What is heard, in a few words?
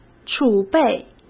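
"储备", Mandarin Chinese; chinese flashcards; hsk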